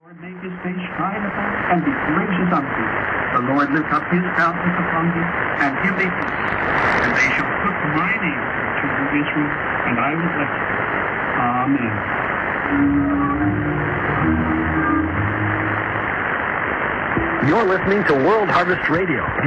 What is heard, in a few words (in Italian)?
9505 kHz, inglese; WHRI; NRD 535 DG; Fribourg